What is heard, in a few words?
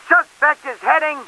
cops, English; Driver